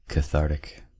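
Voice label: "cathartic", US English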